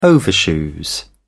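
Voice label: "overshoes", English, British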